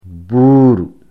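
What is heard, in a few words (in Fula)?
"buuru", Fula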